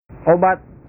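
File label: "obat", Indonesian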